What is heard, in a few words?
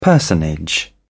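"personage", British English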